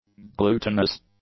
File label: "glutinous", english; adjectives